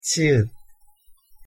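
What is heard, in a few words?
"ᄎ", Korean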